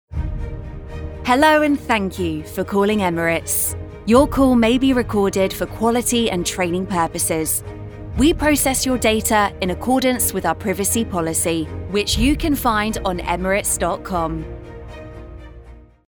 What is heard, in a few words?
english british ivr; self-producing voiceover